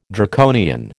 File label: "Draconian", American